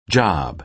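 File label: "job", American English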